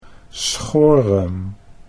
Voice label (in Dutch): "schorem", Dutch